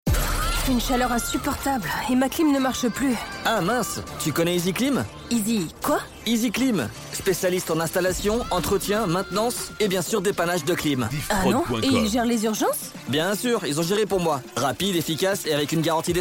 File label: Spot; Easy Clim